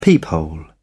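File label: "peephole", English, British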